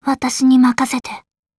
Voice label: yes, voice commands; svencoop; Blanc